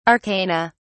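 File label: "arcana", British English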